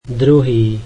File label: slovak second